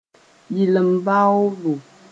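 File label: "yɩlemd baoobo", Mossi; hygiene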